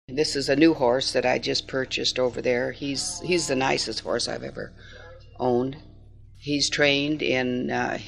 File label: Montana woman 74 monologue; United States Midwest Dialects